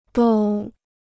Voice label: "都", Chinese